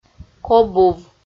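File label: "кобув" Komi, female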